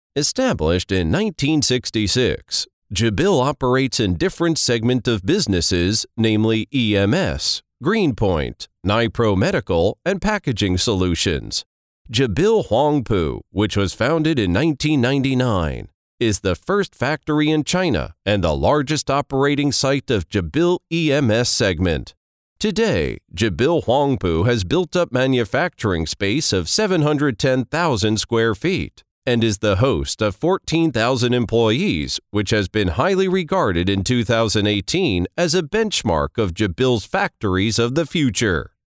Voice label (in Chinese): 外籍英男 干音 企业宣传片